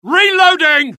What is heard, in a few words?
reload, dialogue UK; mohaa